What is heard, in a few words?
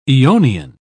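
"aeonian", British English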